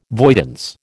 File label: "voidance", American